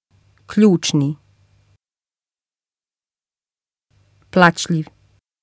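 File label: "kljucni", Bosnian; zaljepljen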